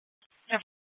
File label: Dispatch; Scottsdale